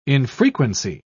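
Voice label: "infrequency", British English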